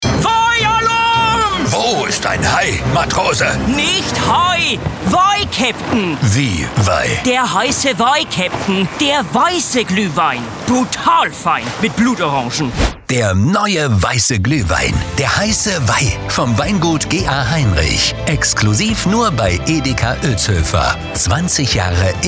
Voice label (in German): radiospot